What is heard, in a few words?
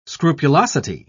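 "scrupulosity", English, British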